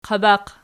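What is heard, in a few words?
"qabaq" nominative, Archi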